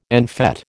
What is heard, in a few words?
"en fÈte", American